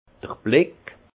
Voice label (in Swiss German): "blick", alsace; le regard; vin